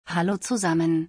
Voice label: Hello everyone in German

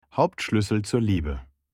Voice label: my heart in german

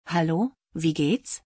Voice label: Hello how are you in German

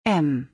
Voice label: The letter M in German

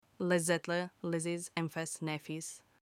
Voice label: delicious in turkish

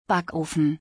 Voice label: Oven or toaster in German

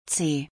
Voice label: The letter C in German

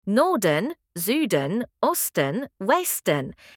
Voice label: geographical direction in german

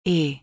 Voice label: The letter E in German

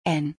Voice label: The letter N in German

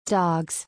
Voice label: "Dogs", English

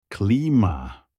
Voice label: air conditioner in turkish

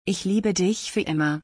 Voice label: I love you forever in German

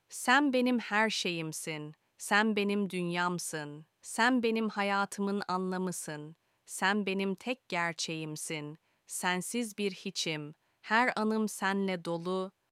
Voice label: my world in turkish

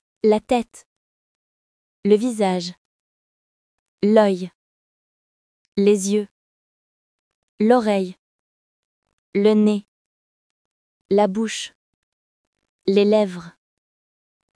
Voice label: Body parts in french

